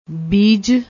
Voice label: "beej", Punjabi